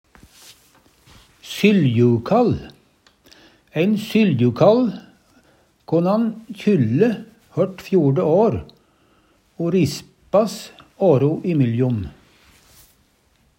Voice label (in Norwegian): syLjukall, Numedalsmål